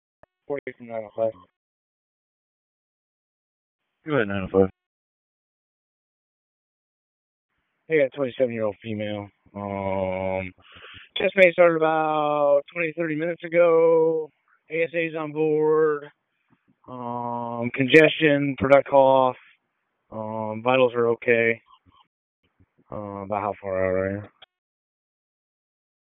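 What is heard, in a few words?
Public Safety Tactical, p25; wake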